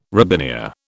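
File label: "robinia", British English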